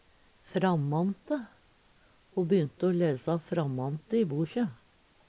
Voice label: frammante, Numedalsmål

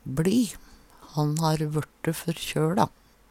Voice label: "bLi", Numedalsmål; Verb; Han har vørte førkjøLa.

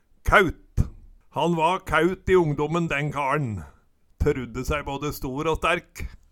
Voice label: kæut, Numedalsmål

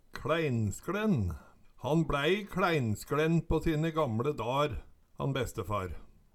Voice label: kLaeinskLen, Numedalsmål

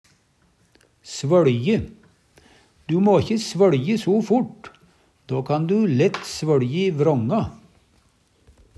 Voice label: svøLji, Numedalsmål; Verb

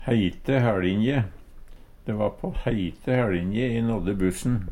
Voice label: heite haelinje, Numedalsmål; i siste lita; De va på heite hæLingje e nådde bussen.

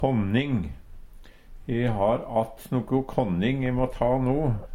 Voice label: kånning, Numedalsmål

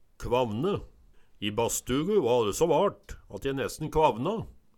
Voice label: kvavne, Numedalsmål

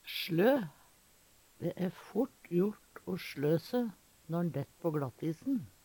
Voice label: slo, Numedalsmål; Verb; Dæ fort jort o sLø se når`n dætt på gLattis`n.; Veggli

